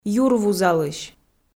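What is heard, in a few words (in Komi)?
"юр вузалысь" Komi, female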